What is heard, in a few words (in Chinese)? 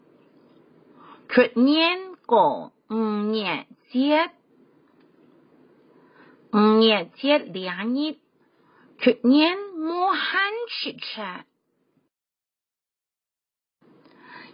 客語 海陸腔; 端午節